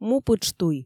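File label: "мупытштуй", Komi, female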